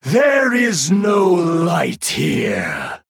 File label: male night elf; Void Naralex; Guff